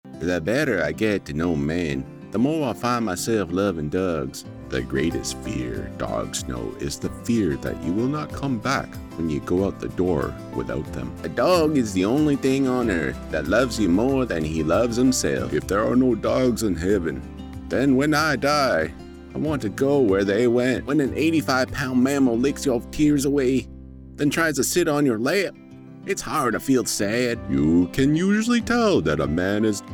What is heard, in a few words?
Character Cat and Dog